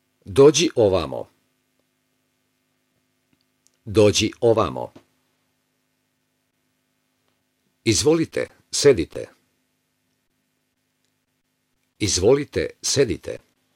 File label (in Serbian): Audio vezba diktat